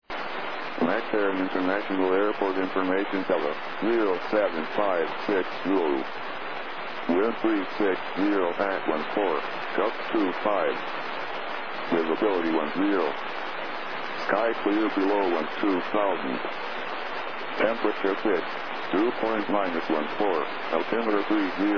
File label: ATIS, USA; las